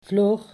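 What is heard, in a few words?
"vloog", Dutch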